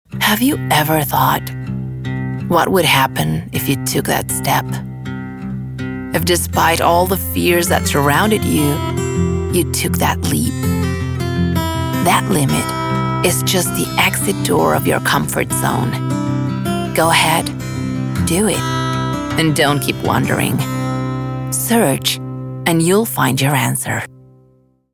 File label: Emotivo Motivacional Emotional Motivational EN